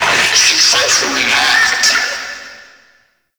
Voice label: Friendly Hack Vocal; Entrati; Necramech; NechramechHack